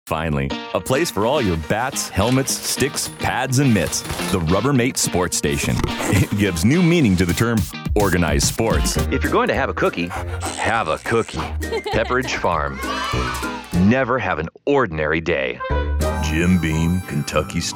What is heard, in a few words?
Commercial